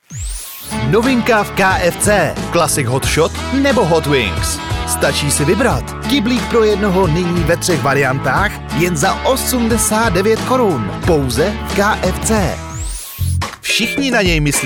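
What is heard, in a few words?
Czech, male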